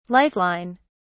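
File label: "life line", British English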